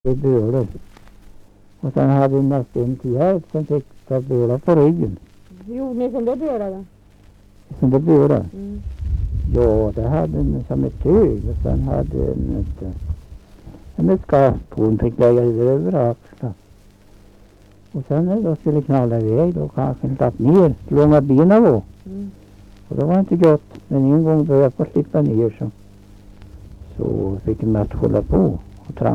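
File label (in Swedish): Skagershult Närke, dialekter, Svenska sagor; Folkminnen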